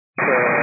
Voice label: 122 925MHz, scanner radio